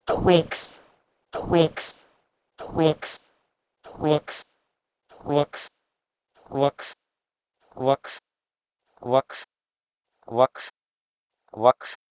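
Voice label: Proto-Indo-European h2wegs to Sanskrit vaksh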